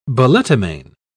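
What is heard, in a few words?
"balletomane", British English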